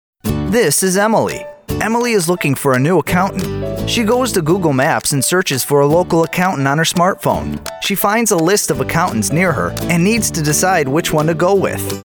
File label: Product videos English North American Young adult; Male